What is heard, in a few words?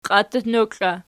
"qiattutnokl", Archi